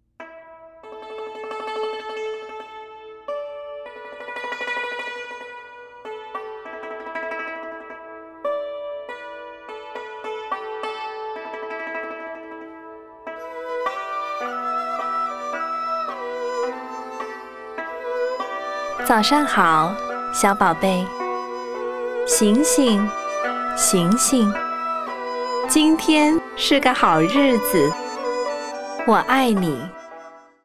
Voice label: Chinese